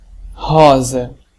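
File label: pink, Serbian; gcompris-data; colors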